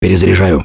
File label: Reloading Quiet, russian; Gambler